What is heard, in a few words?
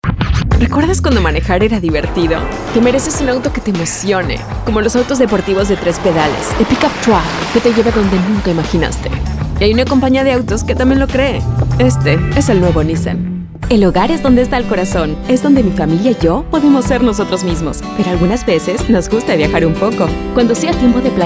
Commercial Neutral Spanish